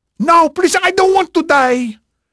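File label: Portuguese male, voice; Pirates Of The Caribbean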